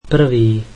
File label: slovak first